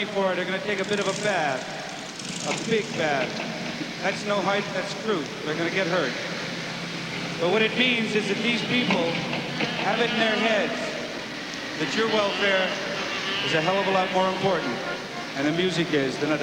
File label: Announcement Free Festival Speech; 1969 - Woodstock